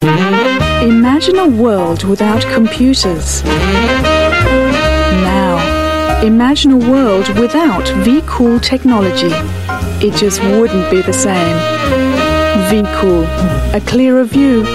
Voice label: UK English, Female